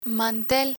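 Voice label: "mantel", Spanish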